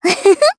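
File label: Vox Happy Japanese; Miruru; kings raid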